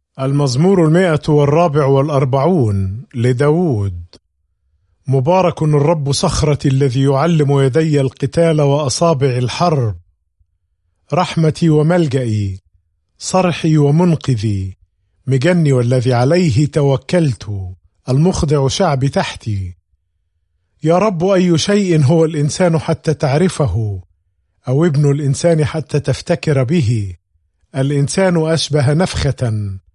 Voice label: Psalm, arabic bible; psalms